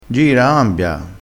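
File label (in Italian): "cambia", vocabolario dialettale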